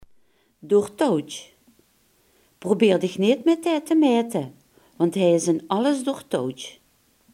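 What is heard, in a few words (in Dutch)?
"bedreven" Limburgish dialect word; bedreven, doortouwdj; Probeer dich neet mèt dae te maete, want hae is in alles doortouwdj.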